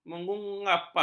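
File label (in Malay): "mengupah", Malay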